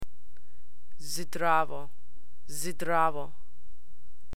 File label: Bosnian hello